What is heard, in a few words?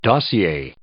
"dossier", English